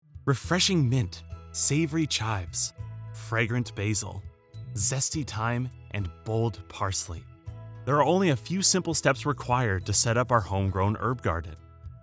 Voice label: Corporate